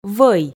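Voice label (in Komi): "вӧй", Komi-Zyrian, female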